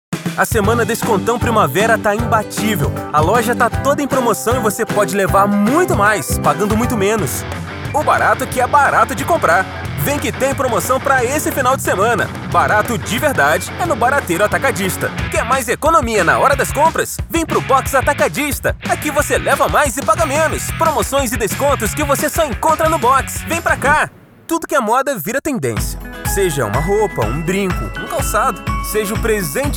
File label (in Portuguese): varejo locutor; locutor profissional